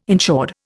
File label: "insured", Indian